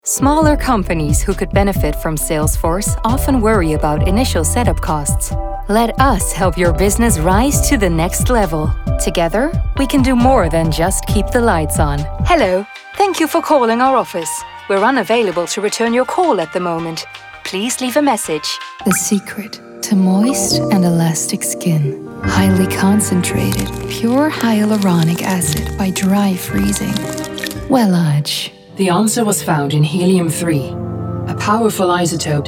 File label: Voice Narration US-UK